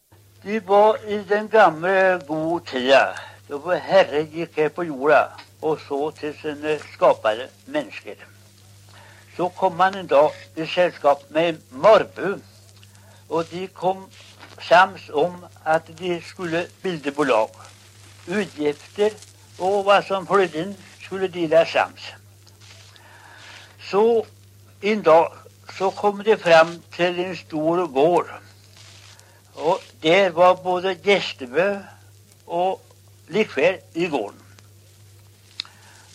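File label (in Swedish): Morlanda Bohuslän, dialekter; BHL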